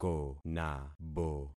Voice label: "konabo", concat syllables; Affixing experiment